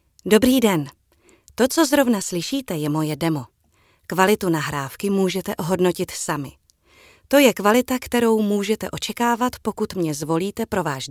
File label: czech female